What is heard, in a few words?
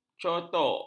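"cotok", Malay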